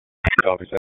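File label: Police Southeast District Dispatch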